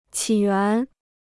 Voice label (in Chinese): "起源", Chinese